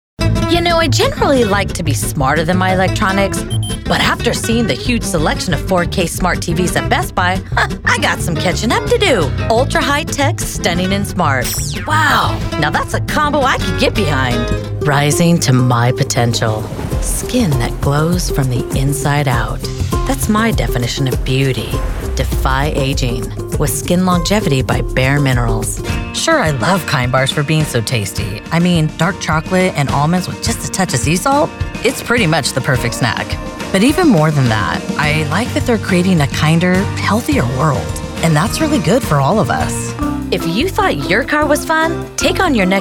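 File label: voice over Commercial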